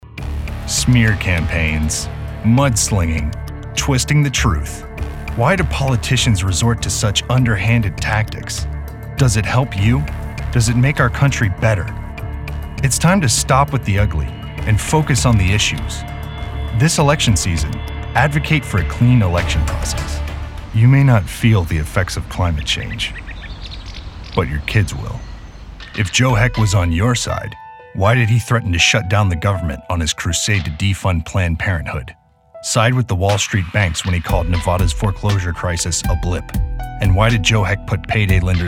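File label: political, Voiceover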